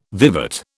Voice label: "vivat", British